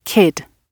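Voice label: "kid", British English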